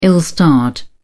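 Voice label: "ill-starred", British English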